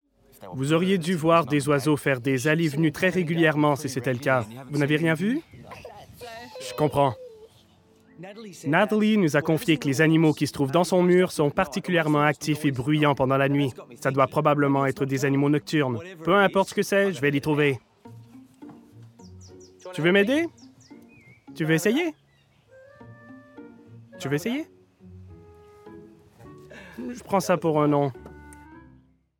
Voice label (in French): surimpression Syllables Oiseaux Excellente diction Sympathique; Francais norm